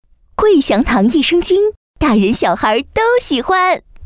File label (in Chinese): 广告 食品饮料 欢快; 桂祥堂